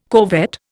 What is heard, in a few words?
"corvette", Indian